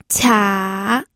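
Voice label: "qia", Mandarin Chinese, third tone; pinyin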